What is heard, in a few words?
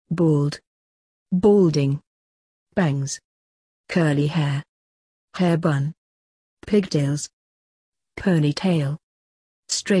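hair, British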